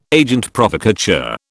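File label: "agent provocateur", British English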